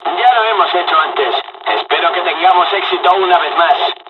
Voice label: dialog, dialogs spanish; us midway; War Thunder

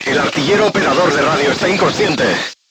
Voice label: voice message machine gunner contused, Spanish

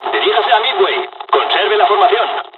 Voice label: dialog, dialogs spanish; War Thunder

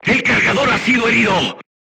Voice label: voice message charger wounded, spanish

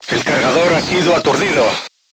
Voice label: voice message charger contused, Spanish

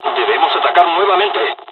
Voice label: dialog, dialogs spanish; War Thunder

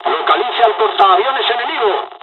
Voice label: bombing, dialogs spanish; War Thunder

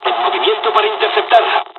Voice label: dialog, dialogs spanish; War Thunder

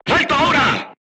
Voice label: voice message short stop, Spanish

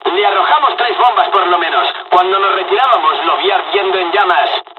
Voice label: dialog, dialogs spanish; us midway; War Thunder

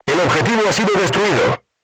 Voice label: voice message target destroyed, Spanish

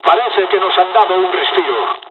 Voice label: dialogs spanish; War Thunder

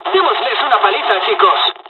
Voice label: dialog, dialogs spanish; us midway; War Thunder

